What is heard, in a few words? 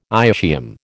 "ischium", American